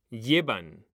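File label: "llevan", Spanish, male; mekanta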